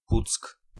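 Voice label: "Puck", Polish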